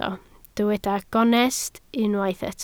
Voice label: "gonest", Welsh